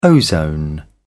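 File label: "ozone", British English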